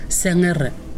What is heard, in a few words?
"hip", Pingelapese